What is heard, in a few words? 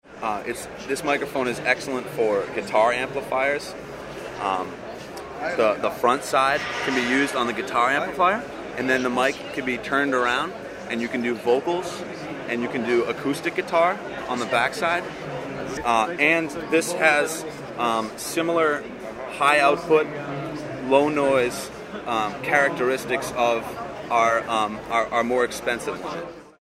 capsule panasonic interview; nagra; ares-m; crowley & tripp; aes